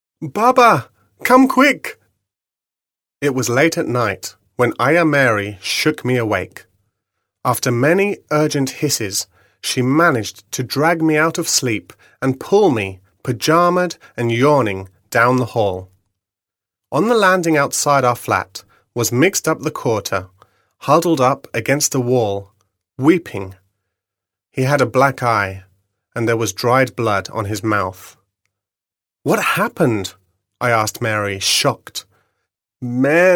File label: Narration